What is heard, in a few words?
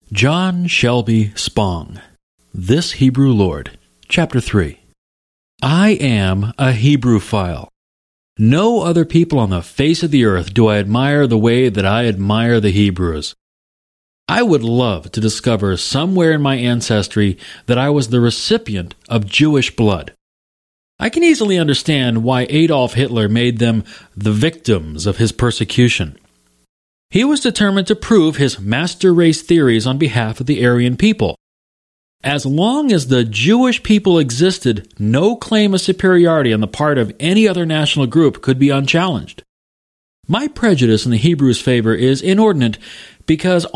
US English Childrens Book